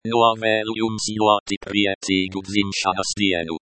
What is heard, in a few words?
wish you a very happy birthday Latvian